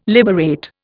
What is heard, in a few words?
"liberate", Indian